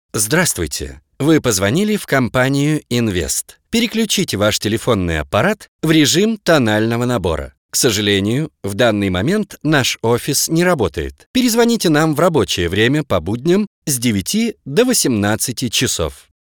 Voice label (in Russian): avtootvetchik